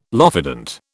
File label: "lophodont", British